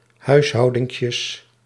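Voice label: "huishoudinkjes", Dutch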